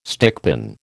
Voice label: "stickpin", American